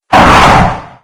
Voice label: hellknight pain; slender